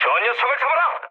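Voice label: Korean attack position mood med, speech; War Thunder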